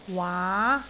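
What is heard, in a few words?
"waa2", Cantonese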